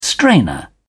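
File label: "strainer", British English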